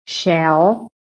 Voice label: "shell", American